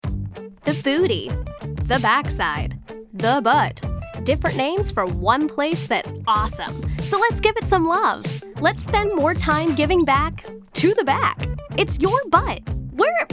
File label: Commercial